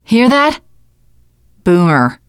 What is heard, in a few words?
heard boomer, teen girl